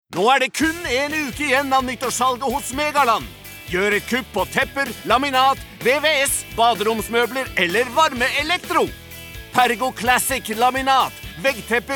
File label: no hard sell